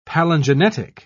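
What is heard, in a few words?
"palingenetic", British English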